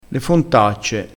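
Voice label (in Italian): "fontacce", dialettale crespinese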